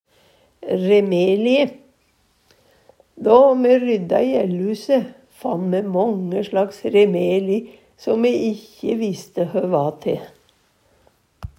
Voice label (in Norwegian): remelie, Numedalsmål